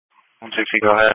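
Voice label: Police Patrol (Dispatch)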